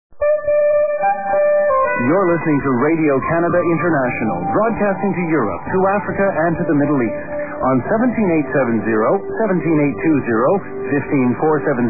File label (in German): ansage; rci